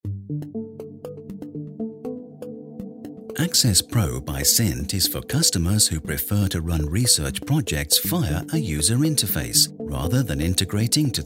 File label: British Voiceover, Explainer Video; CINT Access Pro